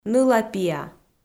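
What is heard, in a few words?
"ныла-пиа", Komi, female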